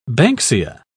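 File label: "banksia", British English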